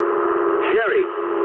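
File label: English enemy detected mood low; War Thunder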